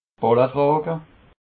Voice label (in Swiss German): "balletrage", alsace